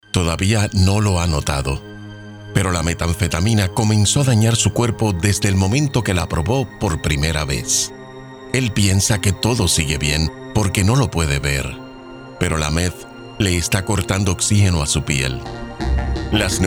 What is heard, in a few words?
spanish puerto rican corporate videos